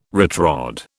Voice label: "retrod", British